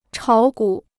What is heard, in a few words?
"炒股", Chinese